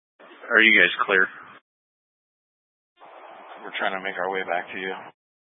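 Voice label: Incident Tactical 3, Scanner audio recording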